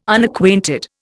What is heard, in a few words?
"Unacquainted", Indian